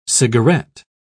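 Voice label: "cigaret", British English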